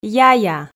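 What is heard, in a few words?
"яя", Komi, female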